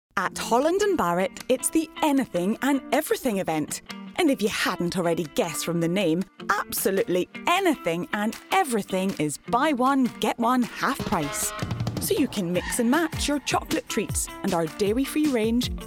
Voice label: ad; Holland and Barrett